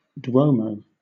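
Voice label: "duomo", British English; pronunciation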